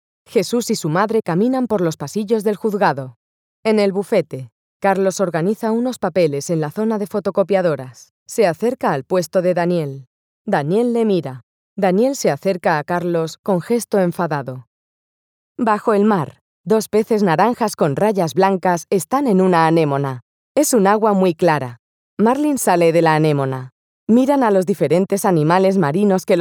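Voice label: Audiodescription, Spanish female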